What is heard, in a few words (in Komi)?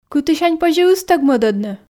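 кытысянь позьӧ ыстӧг мӧдӧдны, Komi, female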